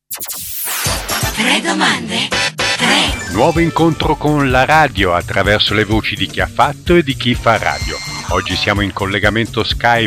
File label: Voice Narration, IT